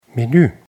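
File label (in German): "menue", German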